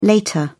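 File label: "later", British English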